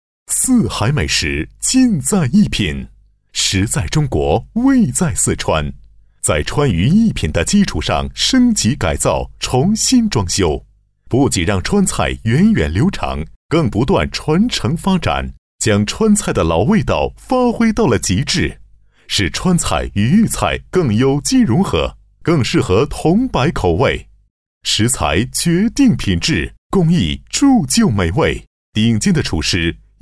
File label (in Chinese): 男 广告 美食